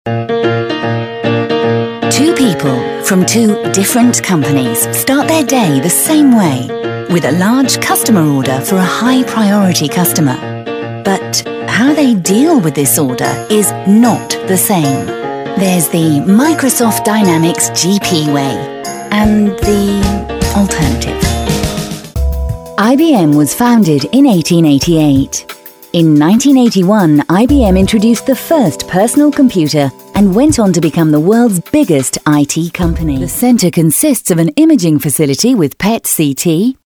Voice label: narration